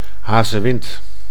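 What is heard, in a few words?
"hazewind", Dutch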